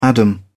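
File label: "adam", English, British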